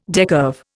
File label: "decurve", Indian